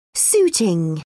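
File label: "suiting", British English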